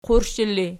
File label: "qurshela", Archi